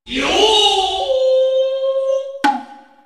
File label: japanese yooo